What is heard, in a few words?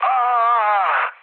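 Serbian damaged pilot mood med, speech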